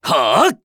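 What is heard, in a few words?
skill, Japanese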